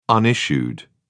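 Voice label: "unissued", British English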